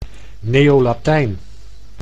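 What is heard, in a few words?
"neo-latijn", Dutch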